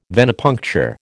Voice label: "Venepuncture", American